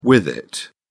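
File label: "with-it", English, British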